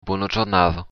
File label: have a nice day, occitan